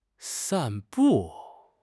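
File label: "san4 bu4", Mandarin Chinese; HSK4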